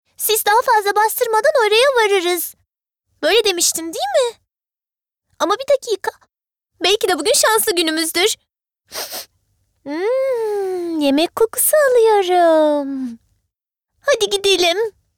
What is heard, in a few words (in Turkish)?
Animasyon